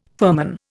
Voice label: "firman", Indian

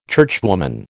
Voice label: "churchwoman", American

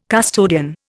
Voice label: "custodian", Indian

